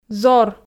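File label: "зор", Komi, female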